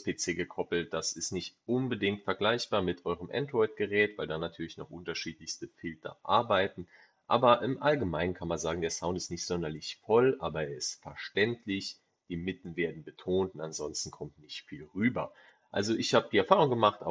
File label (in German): Testaufnahme Mikrofon; Oladance Wearable Stereo